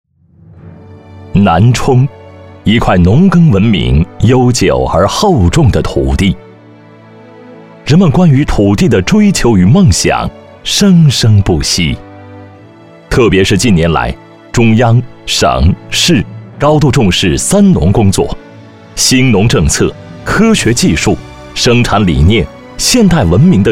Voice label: 男 专题 农业局

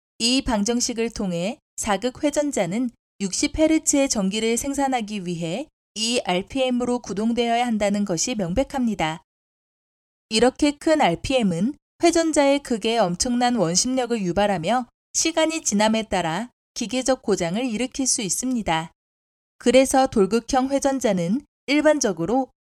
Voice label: 韩语女-自然叙述

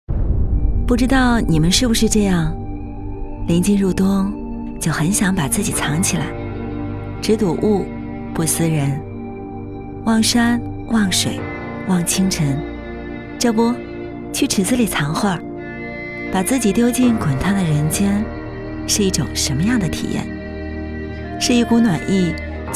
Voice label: 女 旁白 温泉

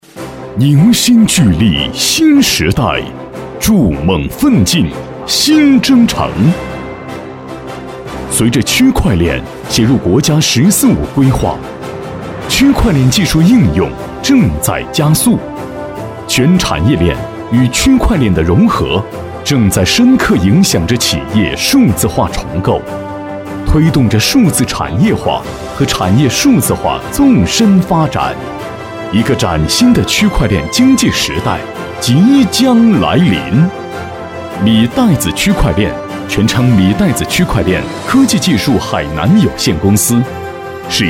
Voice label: 男 专题 有力度; 米袋子视频文稿

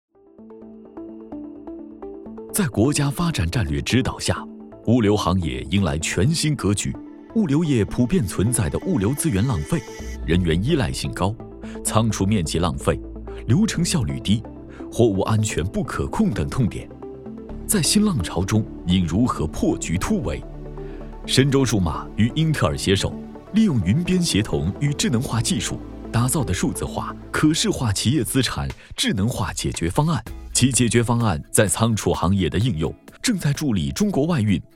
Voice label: 男 企业专题 科技感

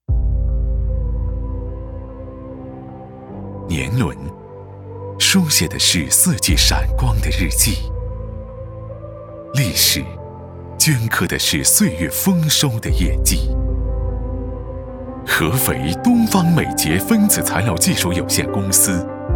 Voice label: 男 专题片 企业

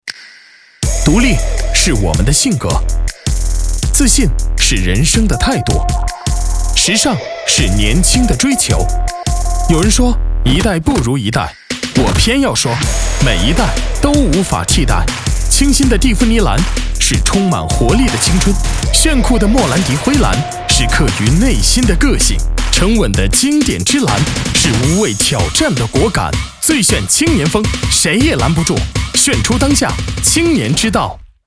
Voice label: 男 广告; 炫赫门

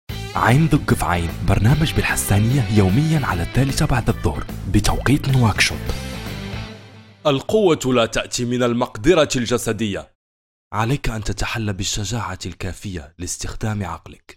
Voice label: 阿拉伯语男 轻快语调宣传片

